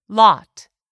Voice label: "lot", English; 3000 words

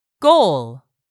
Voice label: "goal", English; 3000 words

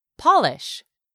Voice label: "polish", English; 3000 words

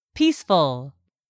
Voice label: "PEACEFUL", English; 3000 words

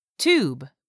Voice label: "tube", English; 3000 words

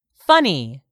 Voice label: "FUNNY", english; 3000 words

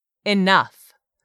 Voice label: "ENOUGH", english; 3000 words

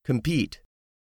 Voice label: "COMPETE", English; 3000 words

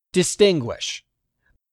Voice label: "DISTINGUISH", English; 3000 words

